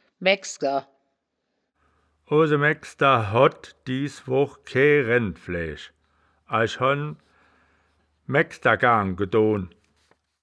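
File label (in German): "maegksger", wittlicher woerterbuch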